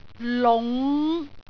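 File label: "lung5", Cantonese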